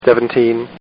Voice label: Man Says, Talking; Partners In Rhyme

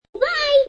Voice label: Cartoon voice says Goodbye; Toy Voices

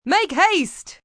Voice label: Make Haste, Sayings, female; Talking; VoiceBox

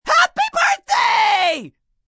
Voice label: Birthday Wild, male; Occasion, event; Holiday; Voice Box

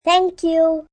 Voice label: Thank You Child, child, girl; Etiquette

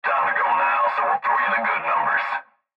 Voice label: Cb Trucker Radio; Radio Voice; Blastwave

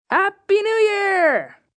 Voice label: New Year, child, boy; New Years Eve; Occasion, event

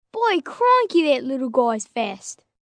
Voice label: Aussie Boy Crikey, 1 boy, 11 yrs

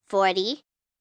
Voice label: Numbers "Forty", 1 child, girl counting; Talking Sound Effects; Sound Effects:Voice; VoiceBox

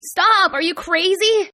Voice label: Female Crazy English, Hispanic Female; Attitude Sound Effects

